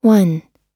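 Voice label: "one", American English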